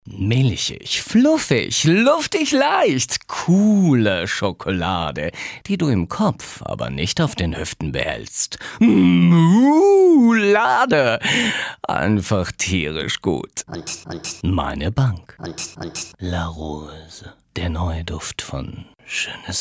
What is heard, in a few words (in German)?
Werbungsmix